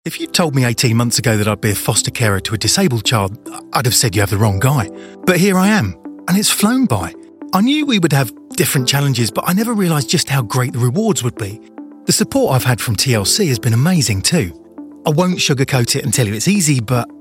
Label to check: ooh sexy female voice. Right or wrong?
Wrong